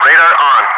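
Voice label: "radar on", English speech, Wingman; DCS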